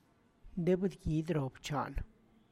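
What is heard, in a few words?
Tlacochahuaya Zapotec; hens eat beetle larvae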